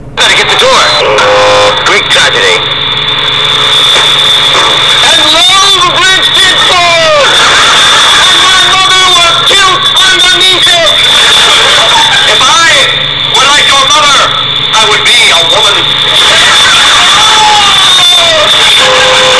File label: greek